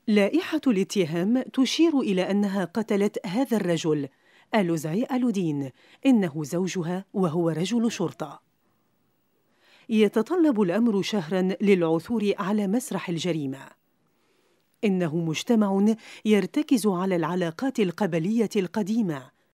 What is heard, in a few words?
locutora arabe arabic female voiceover; Arabic female voice over. Lebanon